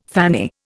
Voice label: "fanny", Indian

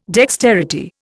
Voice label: "dexterity", Indian

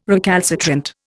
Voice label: "recalcitrant", Indian

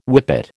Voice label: "whippet", American

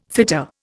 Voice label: "fitter", Indian

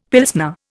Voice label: "Pilsner", Indian; Dictionary

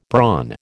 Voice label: "brawn", American

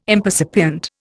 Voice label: "impercipient", Indian

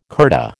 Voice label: "kurta", American

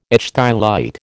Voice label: "ichthyolite", American

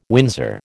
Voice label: "Windsor", American

